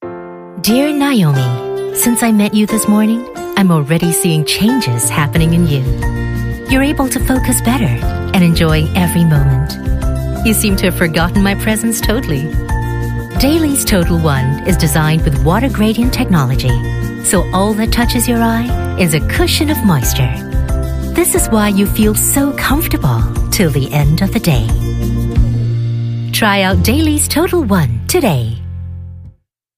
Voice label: Asian English Commercial, Singaporean Female; Alcon Dailies